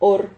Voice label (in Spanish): "hor", Silabas